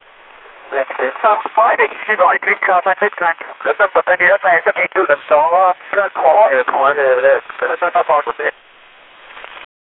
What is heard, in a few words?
rescue communication, hopeful